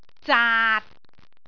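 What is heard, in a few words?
"zaat6", Cantonese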